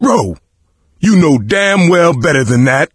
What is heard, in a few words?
friendly fire producer, coach, survivor voice; video game; source engine; left 4 dead 2